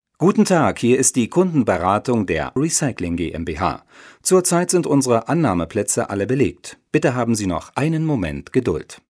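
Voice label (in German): deutsch, Telefonansagen männlich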